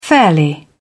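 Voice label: "fairly", British English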